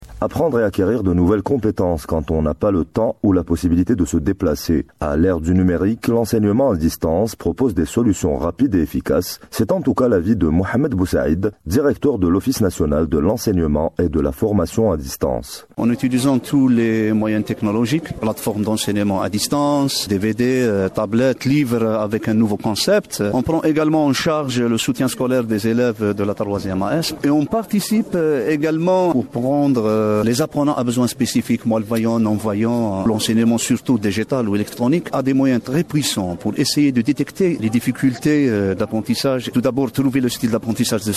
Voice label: e-learning; Internet au service de l’Education et de la formation : l’exemple du centre national de l'enseignement professionnel à distance (CNEPD); Radio Algérienne